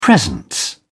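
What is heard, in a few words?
"presence", British English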